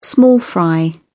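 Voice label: "small fry", English, British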